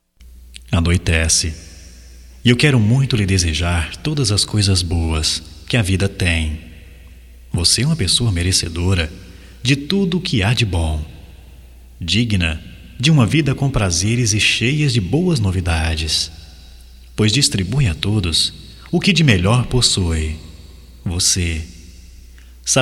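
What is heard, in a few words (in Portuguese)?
BOA NOITE GERAL, Só Voz, masculino; Telemensagens; Telemensagens 2017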